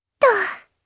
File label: Landing, jp; Lavril; kingsraid